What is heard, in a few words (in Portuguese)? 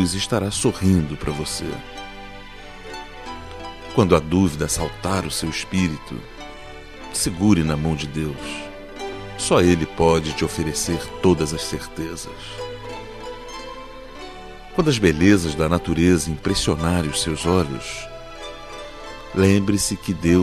Feliz Natal Religioso, M